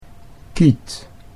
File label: "quitte", Dutch